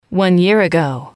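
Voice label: "one year ago", English, female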